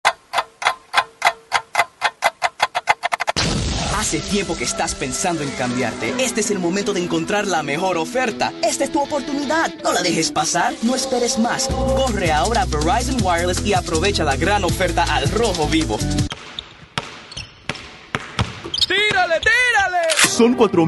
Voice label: Spanish